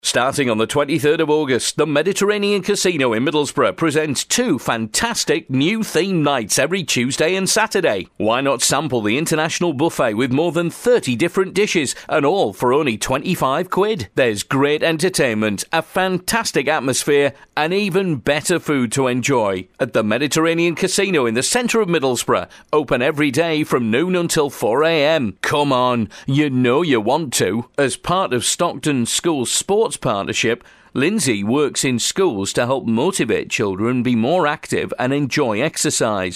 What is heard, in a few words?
tees voice